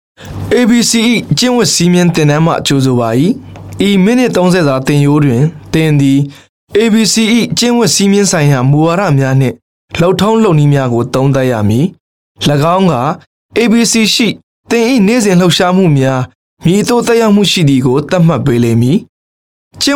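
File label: m Burmese E-Learning, male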